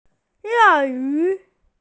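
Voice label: "crocodile", Mandarin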